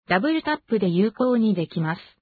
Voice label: ja JP confirm; VoiceView